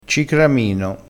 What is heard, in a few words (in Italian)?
"cicramino", crespinese